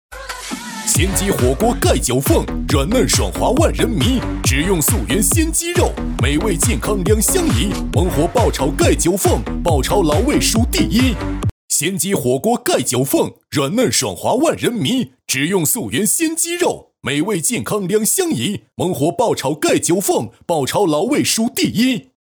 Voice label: 男, 喊麦 火锅; 鲜鸡火锅; 鲜鸡火锅丐九凤

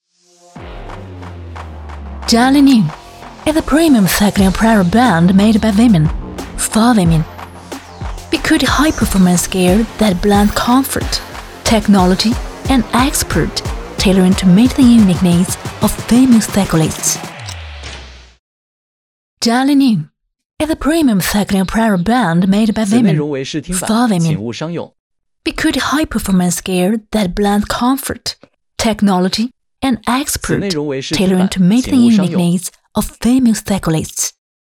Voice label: 女 英文广告

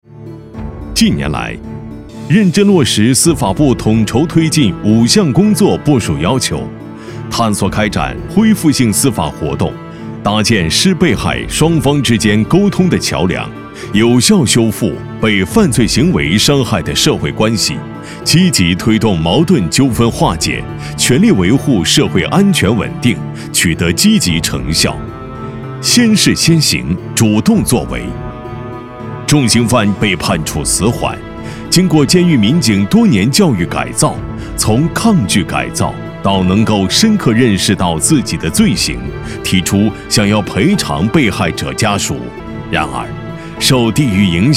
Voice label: 男 专题 央视讲述; 救赎

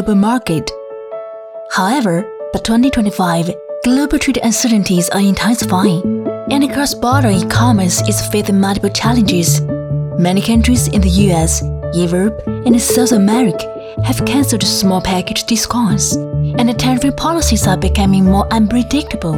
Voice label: 女 英文解说 Globalization

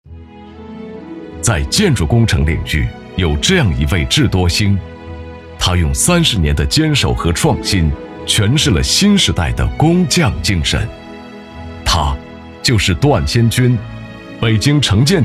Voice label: 男, 专题

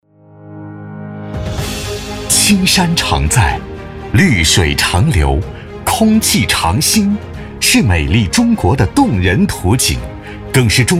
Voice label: 男 宣传片 蓬勃昂扬; 中国环境科学研究院

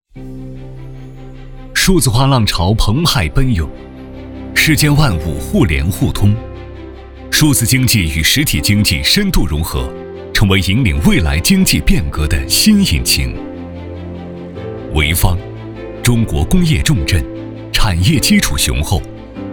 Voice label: 男 专题; 潍坊数字化

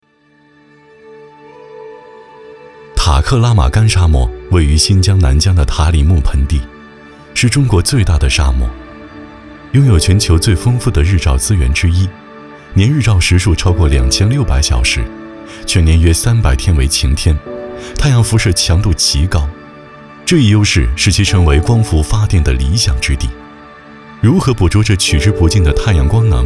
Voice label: 男 旁白解说; 沙漠中的绿电密码

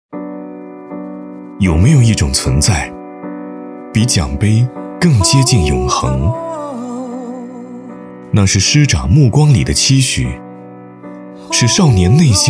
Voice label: 男 走心旁白

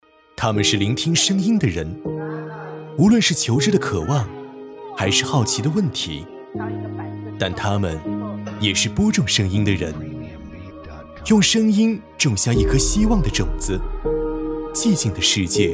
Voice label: 男, 专题; 他们是聆听声

